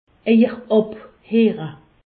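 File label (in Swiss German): "abhoriche", Alsatian; medecine; vous ausculter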